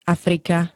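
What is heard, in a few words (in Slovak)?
"Afrika", Slovak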